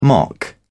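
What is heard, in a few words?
"mock", British English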